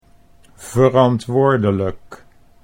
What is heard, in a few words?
"verantwoordelijk", Dutch